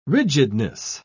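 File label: "rigidness", British English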